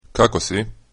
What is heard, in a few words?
how are you, croatian